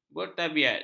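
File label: "bertabiat", Malay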